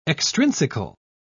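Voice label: "extrinsical", British English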